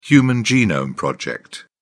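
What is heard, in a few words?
"human genome project", English, uk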